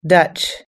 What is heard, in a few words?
"dutch", us english